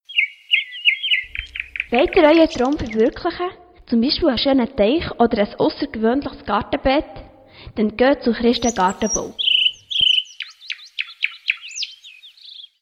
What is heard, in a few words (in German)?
Werbejingle Gartenbau; Christen; Bolligen